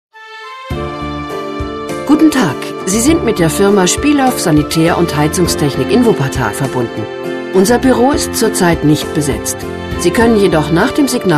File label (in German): anrufbeantworter; spielhoff